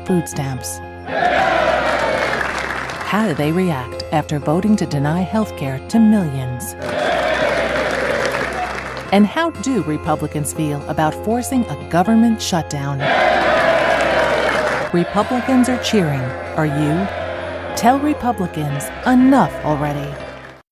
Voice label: political women

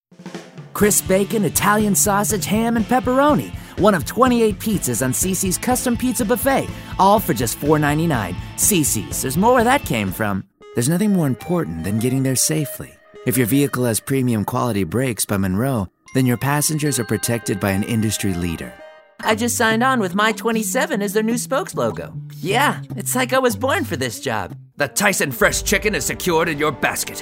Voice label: commercial men

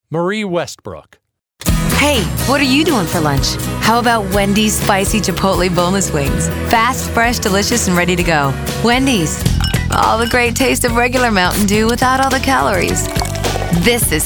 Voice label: commercial, commercial women

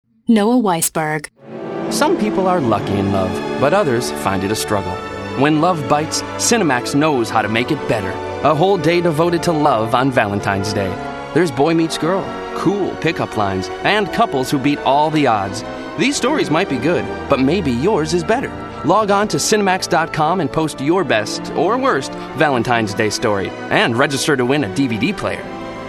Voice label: promo male

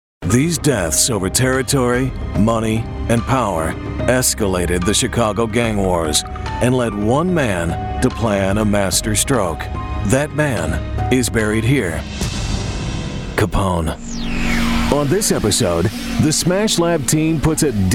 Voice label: narration men tv in show

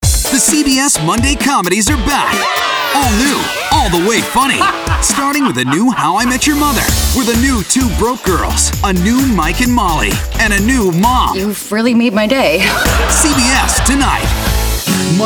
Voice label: promo male

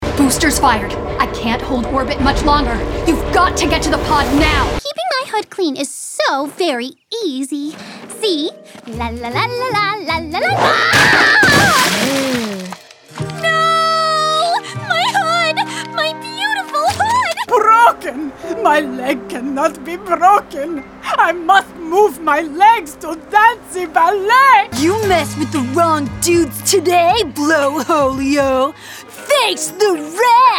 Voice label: animation women

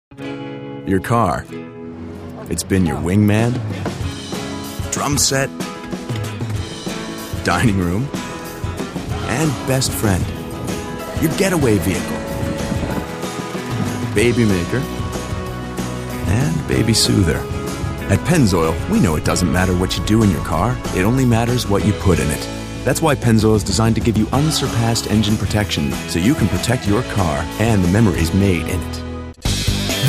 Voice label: commercial, men

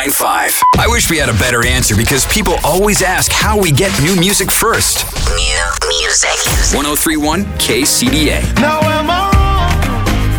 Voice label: radio hot ac, radio imaging

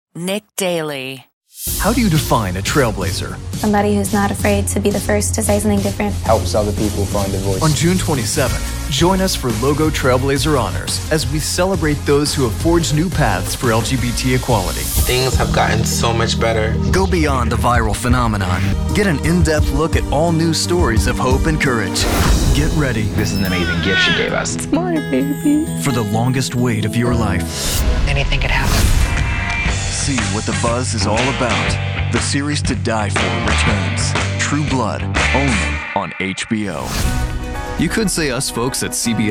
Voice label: promo male

